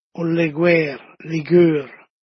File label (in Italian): "ol leguer", Dialetto di Albosaggia; Leguèer o ligṍor; Ramarro